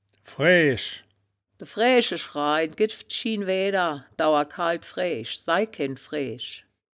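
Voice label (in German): "fraeaesch", wittlicher; Frääsch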